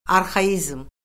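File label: "arxaizm", Azerbaijani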